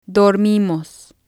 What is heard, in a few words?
"dormimos", Spanish